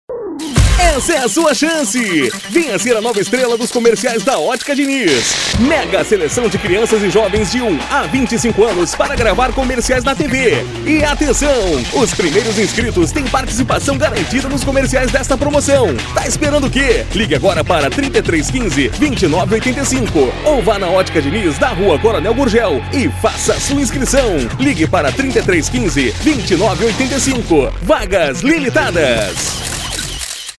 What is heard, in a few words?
Spot